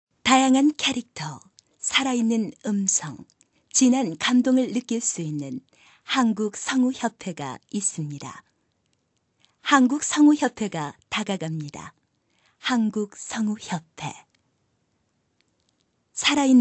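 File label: Korean female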